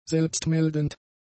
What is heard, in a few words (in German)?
"selbstmeldend", German; adjectives